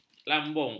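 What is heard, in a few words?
"lambung", Malay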